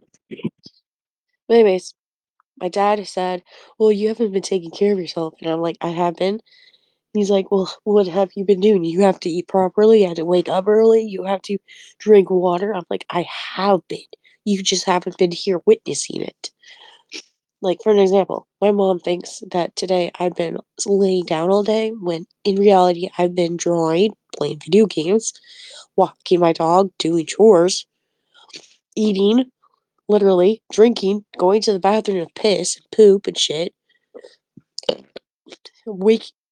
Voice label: voice message